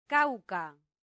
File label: "cauca", latin; santillana